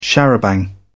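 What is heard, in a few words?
"charabanc", British English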